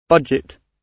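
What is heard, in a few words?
"budget", British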